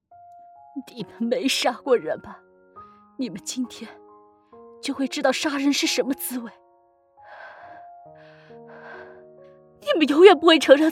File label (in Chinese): 哭戏控诉情感悲伤; 逆流成河片段